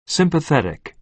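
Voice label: "sympathetic", American English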